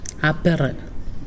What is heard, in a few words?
"shoulder", Pingelapese